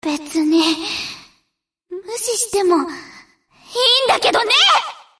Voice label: Enemy Voice German Escort Princess Damaged Attack